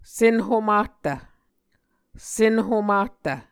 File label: "senhomahtv", Muscogee